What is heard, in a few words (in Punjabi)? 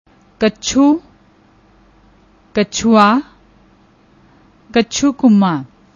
"kachhu", Punjabi